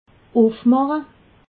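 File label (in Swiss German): "uffmacha", Haut-Rhin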